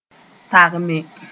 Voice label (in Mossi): "tagme", moore